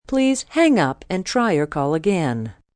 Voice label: Operator Hang Up, Telephone operator, female, recorded; Telephone Voice